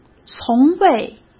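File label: "从未", Mandarin Chinese; hsk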